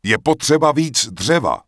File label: Night Elf Male Out Of Mana; wowpreklad; czwow; NightElfVocalMale